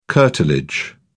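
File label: "curtilage", British English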